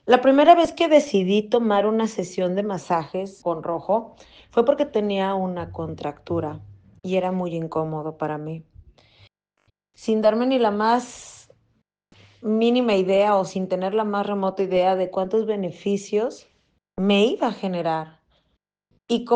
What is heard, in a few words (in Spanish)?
Testimonio